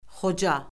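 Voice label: "xoca", Azerbaijani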